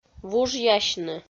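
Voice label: "вужъясьны", Komi, female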